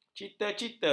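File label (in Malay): "cita-cita", Malay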